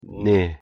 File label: pronunciation yes 네